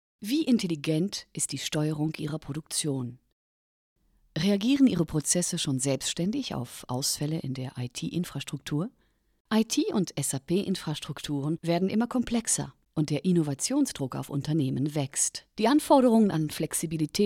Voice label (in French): Film d'entreprise Allemand